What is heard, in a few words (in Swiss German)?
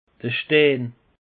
"stein", alsace; peche